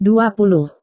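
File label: "dua puluh", Indonesian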